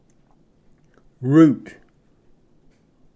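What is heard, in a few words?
"root", English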